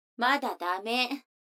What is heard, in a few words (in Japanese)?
女の子台詞